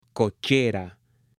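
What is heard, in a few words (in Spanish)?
"COCHERA", hombre; MUEBLES; AppLenguaje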